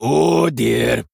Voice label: Male Dwarf Oops

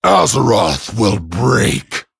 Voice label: Male Dragon; TRIGGER CATACLYSM

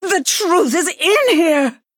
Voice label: Female Human

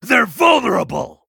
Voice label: Male Night Elf Attack

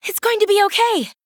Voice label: Female Human, Attack

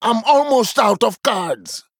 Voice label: Male Troll Low Cards; Brukan

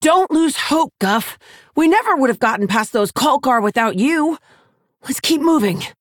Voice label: Female Orc; Rokara; Guff

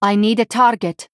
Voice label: Female Draenei ERROR TARGET

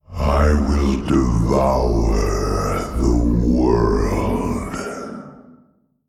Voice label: Male Old God Creature Trigger Special

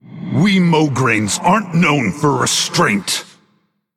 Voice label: Male Undead Threaten

